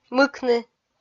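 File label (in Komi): "мыкны", Komi, female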